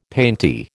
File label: "painty", American